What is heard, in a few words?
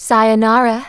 "BYEBYE", Smooth Babe, Speech; Worms Armageddon